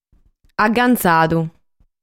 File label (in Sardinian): "Agantzadu", sardu